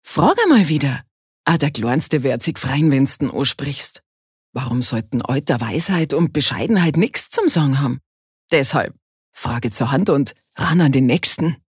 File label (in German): Dialekt Bayerisch